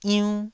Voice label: "iunn1", taiwanese syllables